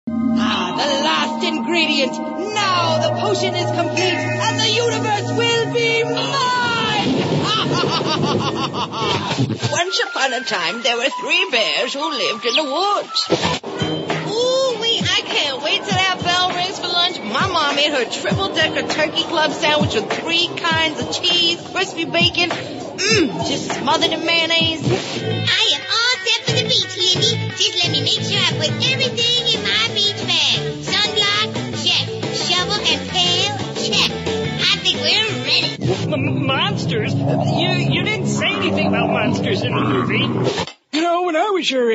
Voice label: american voice character

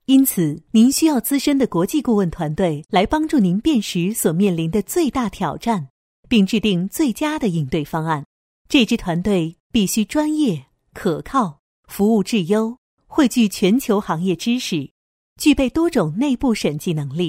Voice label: female chinese mandarin

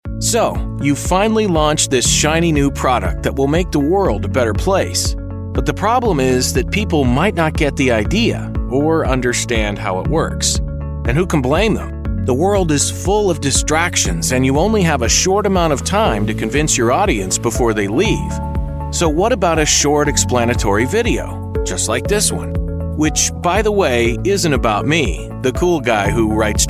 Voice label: american explainer

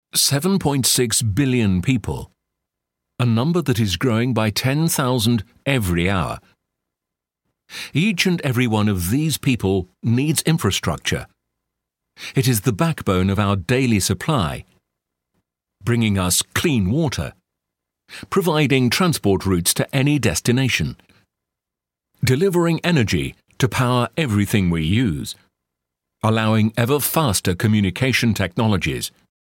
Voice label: corporate

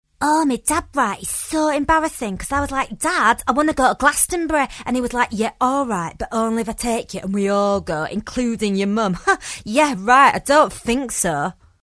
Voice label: northern accent

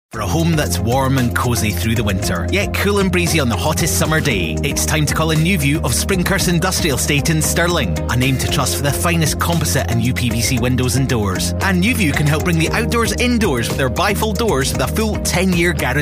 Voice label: scottish voice; montage

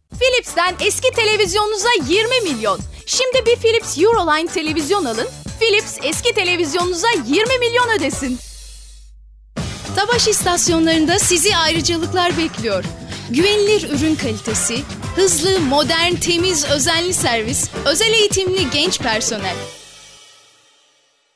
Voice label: turkish female voices

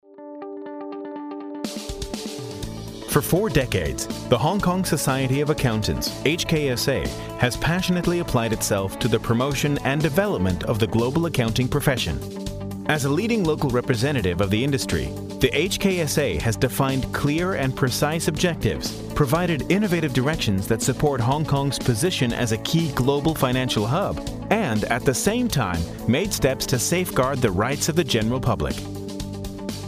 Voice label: american corporate

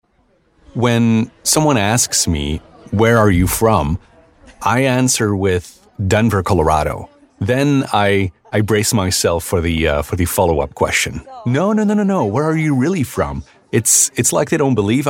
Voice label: midatlantic voice dubbing

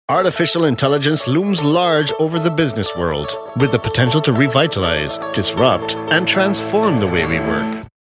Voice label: global voiceover corporate

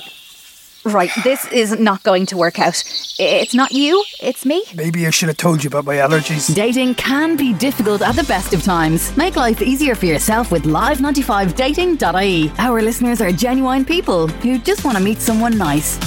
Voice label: irish voice over natural

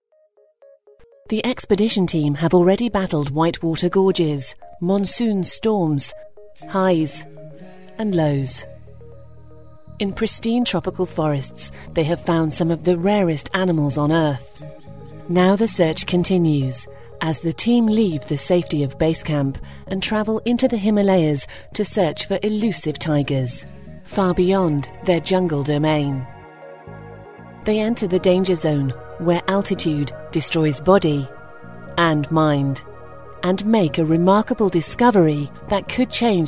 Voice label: documentary

